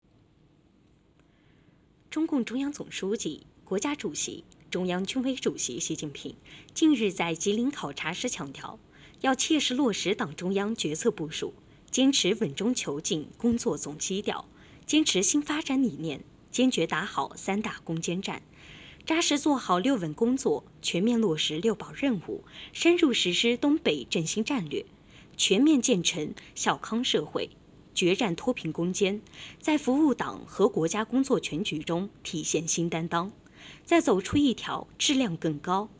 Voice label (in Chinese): 新闻